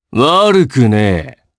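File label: Vox Happy, Japanese; voices; heroes; Ezekiel; kingsraid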